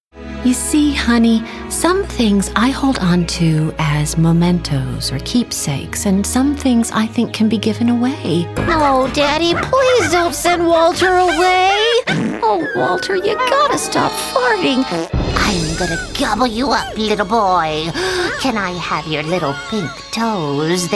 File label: animation